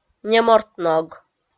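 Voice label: "неморт ног", Komi, female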